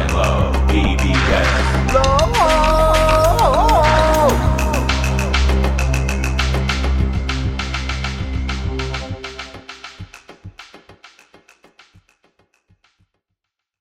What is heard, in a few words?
bbs radio promo